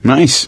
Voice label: nice job, gambler, survivor voice

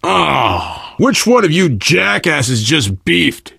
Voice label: world hospital, player survivor voice biker

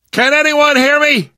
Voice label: call for rescue, survivor voice; source engine; left 4 dead 2; namvet

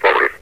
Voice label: "affirmative", metropolice; hl2; Source engine

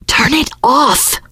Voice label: kill that light, teengirl, voice; source engine; left 4 dead 2; survivor

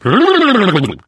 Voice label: adrenaline, survivor voice; source engine; left 4 dead 2

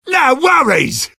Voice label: positive vocalization; sniper

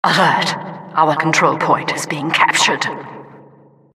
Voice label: announcer control point warning

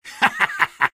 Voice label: sniper taunt rps win

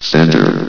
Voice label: "center", vox; Source Engine; bshift

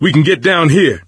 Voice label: misc directional, coach, survivor voice

